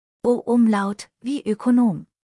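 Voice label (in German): "Oekonom", German spelling alphabet; Ö wie Ökonom; buchstabierung alt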